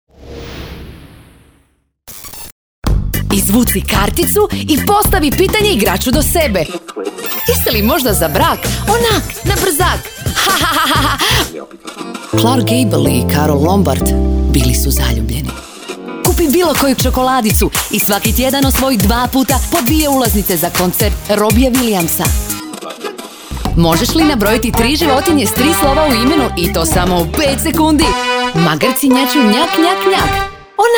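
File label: croatian female commercial, voice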